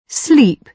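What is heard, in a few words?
"sleep", British English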